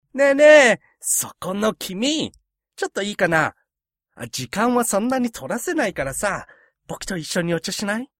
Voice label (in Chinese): 角色模仿